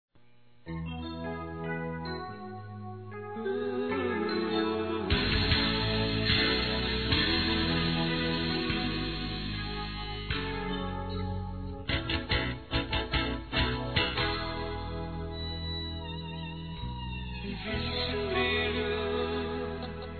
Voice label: ANIV. GERAL, Gospel, fem; Telemensagens; Telemensagens 2017

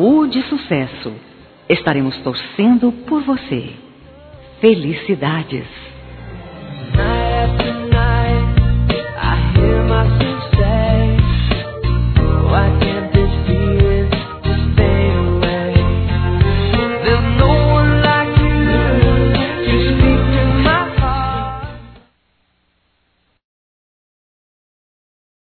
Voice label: ANIV. CLIENTE F

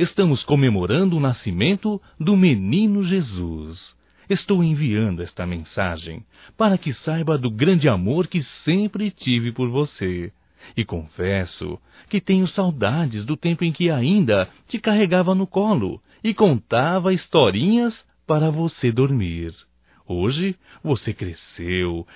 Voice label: NATAL NETO (M); masc; Telemensagens 2017; Natal & Ano Novo

